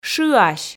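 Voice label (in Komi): "шыась", Komi, female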